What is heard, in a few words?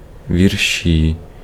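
Ukrainian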